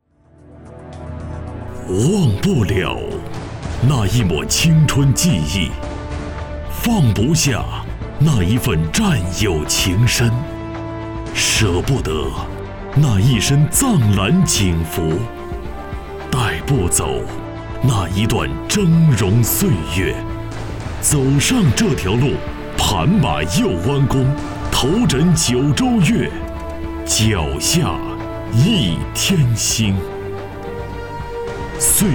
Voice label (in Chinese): 男 宣传片 人物配音; 记韦晓明